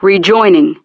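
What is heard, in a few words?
"Rejoining", English; Betty; DCS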